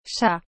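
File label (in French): "chat", French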